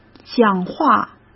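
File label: "讲话", Mandarin Chinese; hsk; chinese-flashcards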